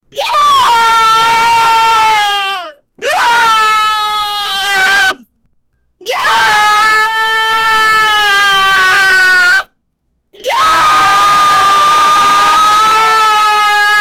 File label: screaming what the fuck